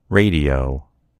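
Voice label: "radio", American English; gcompris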